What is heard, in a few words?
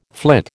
"flit", American